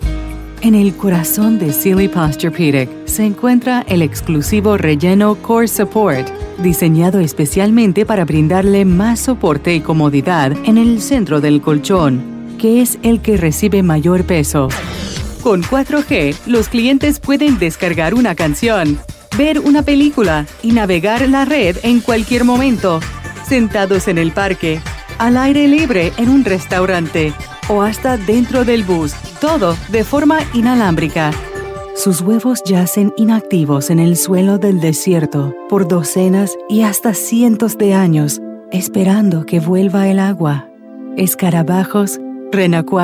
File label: Narration Spanish